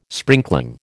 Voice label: "sprinkling", American